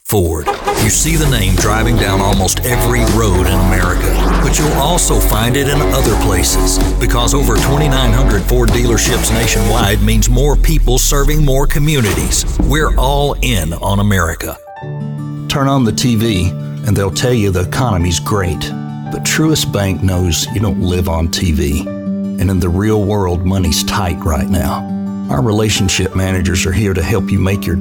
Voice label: Commercial